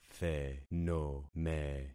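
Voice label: "thenome", concatenated syllables; Affixing experiment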